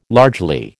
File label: "largely", American